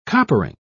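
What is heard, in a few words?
"coppering", British English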